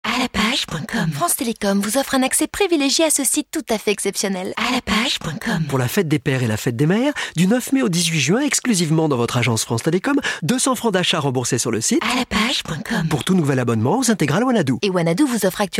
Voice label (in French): mutine, voix off comédienne